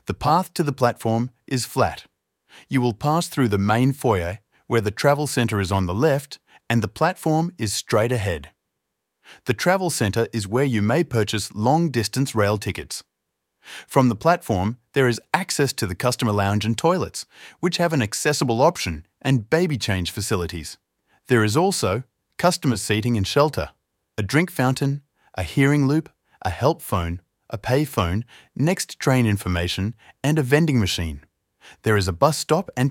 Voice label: Station map audio description